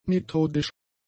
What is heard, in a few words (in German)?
"methodisch", German; adjectives